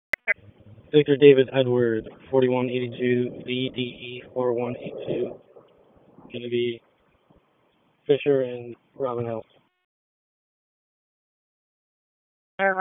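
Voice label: Police Southeast District Dispatch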